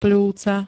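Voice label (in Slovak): "pľúca", Slovak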